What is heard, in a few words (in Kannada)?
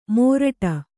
"ಮೋರಟ", kannada